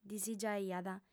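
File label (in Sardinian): "disigiaiat", female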